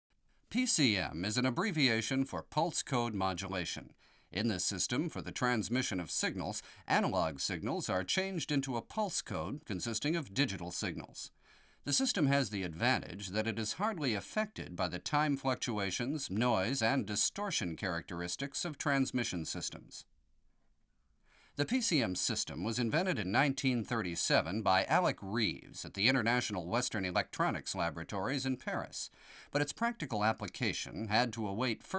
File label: Announcement English; Stereo; Denon Audio Technical CD